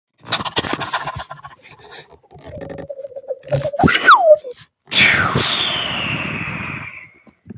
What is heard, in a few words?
voice message